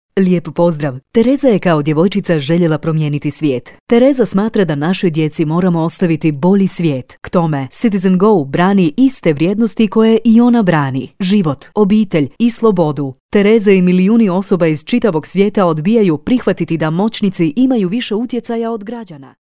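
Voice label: Croatian, female, narration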